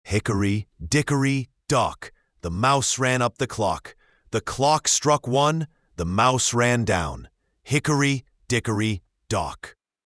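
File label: male British; Hickory Dickory Dock; ttsmp3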